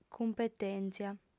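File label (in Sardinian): "cumpetèntzia", female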